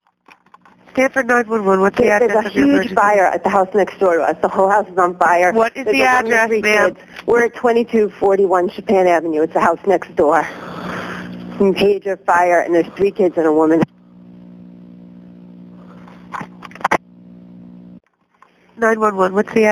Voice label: 911 calls